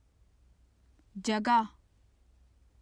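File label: "jagah", Urdu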